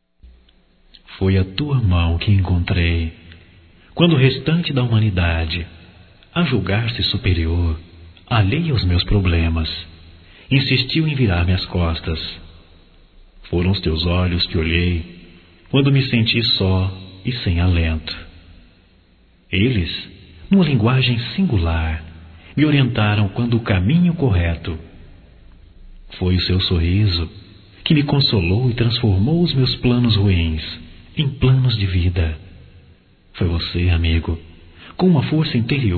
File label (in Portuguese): Amizade Geral Masculino